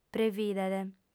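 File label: "previdet", Sardinian, female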